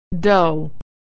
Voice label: "dough", pronunciation